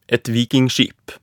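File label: "et vikingskip", Norwegian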